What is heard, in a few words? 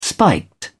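"spiked", British English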